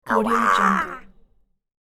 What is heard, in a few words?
cartoon crow offended pain; cartoon sounds